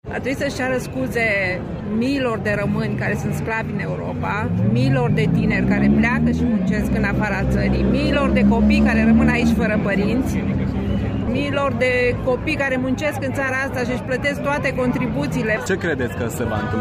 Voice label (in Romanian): vox pensionari; pensionari in piata victoriei